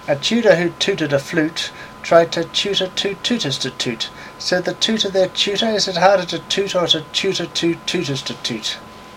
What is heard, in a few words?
Microphone Test